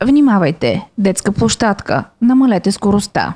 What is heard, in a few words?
Children; mobilenavigator 2023